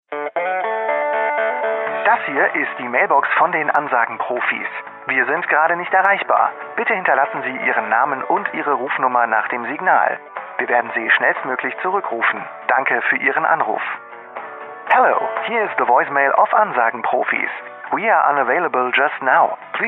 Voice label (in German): Hörprobe Telefonansage; Ansagenprofis